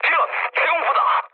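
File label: Korean damaged AA mood medium; speech; War Thunder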